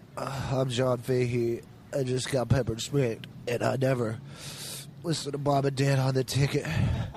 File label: wacky radio liner